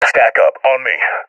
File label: request follow me, seal epic; Counter Strike Model Pack; CS:GO